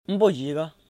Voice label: Umbo yega., Lotha; He will come.

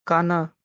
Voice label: "Kana", Kurukh

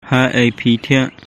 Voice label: Khasi; Where did you sleep?; Haei phi thiah?

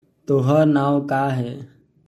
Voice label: Tohar nao ka ha?, Bhojpuri; What is your name?

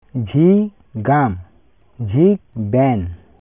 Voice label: Santali; Will open

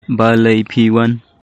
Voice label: Khasi; Why did you come?; Balei phi wan?

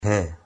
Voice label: "He", Haryanvi

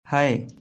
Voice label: "Hai", Baghelkhandi

